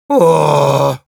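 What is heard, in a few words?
negative vocalization, Russian; огорчённо; Ооооо...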